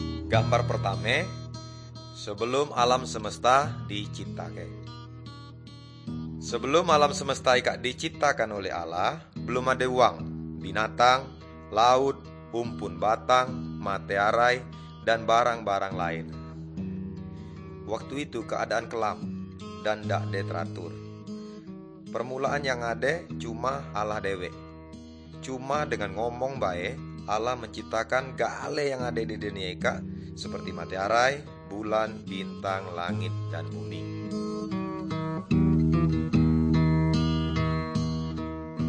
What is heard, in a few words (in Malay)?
good news melayu tanjung raja; malay